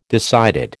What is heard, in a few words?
"decided", American